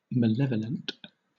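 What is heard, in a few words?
"malevolent", British English; pronunciations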